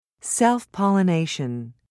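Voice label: "self pollination", British English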